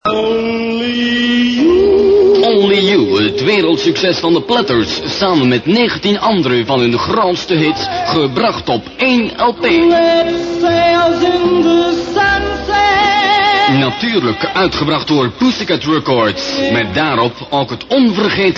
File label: commercial